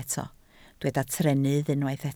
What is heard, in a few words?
"trennydd", Welsh